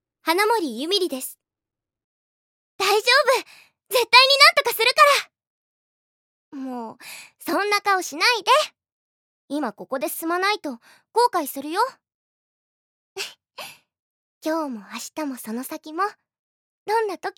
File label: voicesample, jp